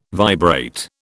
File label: "vibrate", British